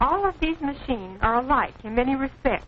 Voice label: all of these machines are alike, Quirky Female Typing Instructor; VOCALS and SPOKEN WORD